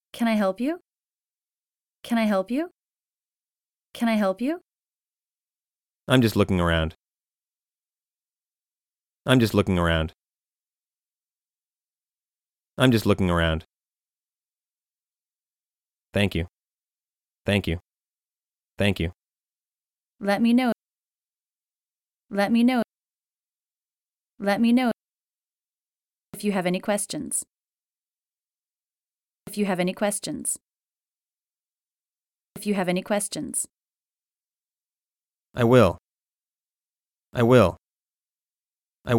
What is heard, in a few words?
Shopping Dialog Practice